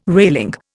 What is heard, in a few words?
"railing", Indian